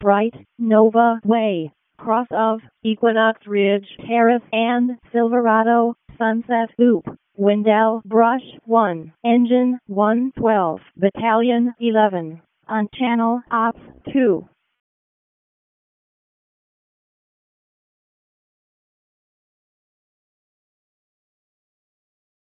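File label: Wake County Fire Department ALERT, p25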